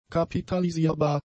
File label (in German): "kapitalisierbar", German; adjectives